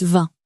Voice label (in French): "vingt", French